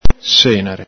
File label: "senare", Veneto